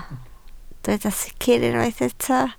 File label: "sicr", Welsh